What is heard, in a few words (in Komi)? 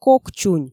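"кок чунь", Komi, female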